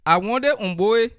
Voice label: how are you, bassa

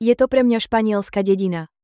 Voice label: incomprehensible slovak

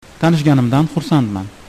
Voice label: pleased to meet you uzbek

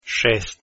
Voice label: 6 croatian

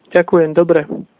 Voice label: fine, slovak

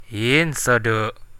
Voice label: go away, central dusun

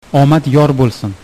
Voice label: good luck uzbek

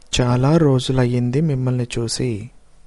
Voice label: long time no see, Telugu

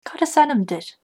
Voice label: name, irish

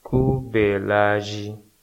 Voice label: good morning, nupe

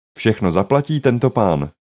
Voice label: will pay all, czech

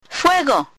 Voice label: "fire", Spanish

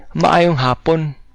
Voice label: good afternoon, cebuano

